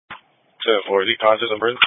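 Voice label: Police Patrol Dispatch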